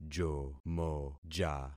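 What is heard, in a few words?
"jomoja", concatenated syllables; Affixing experiment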